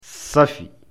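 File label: "safi", Fula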